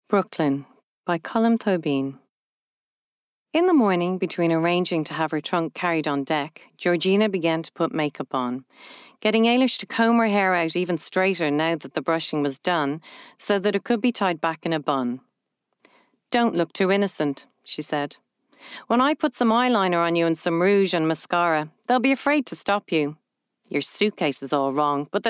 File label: Bookread